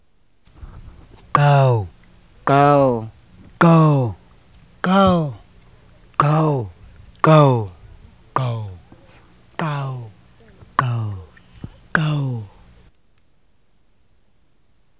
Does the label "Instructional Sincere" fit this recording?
No